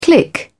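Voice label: "click", British English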